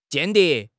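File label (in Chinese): "间谍", Chinese; HSK6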